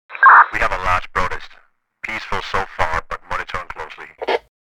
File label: Police radio chatter large protest